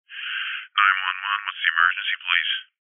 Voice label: Emergency 911 Call Male Handler Greeting - 911 Whats Your Emergency Please